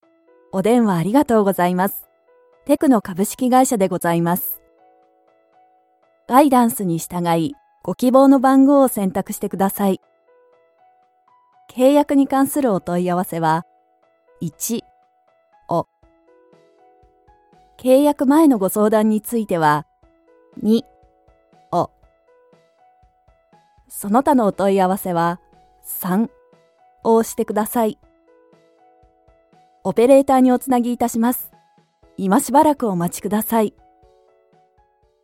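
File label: japanese interactive voice response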